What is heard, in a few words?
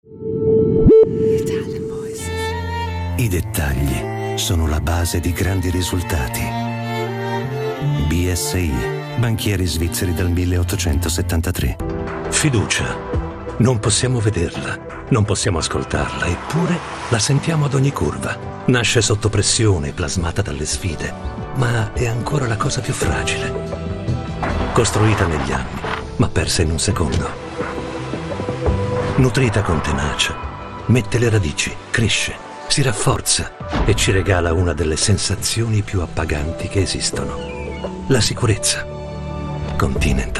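Italian voices, Authoritative Reassuring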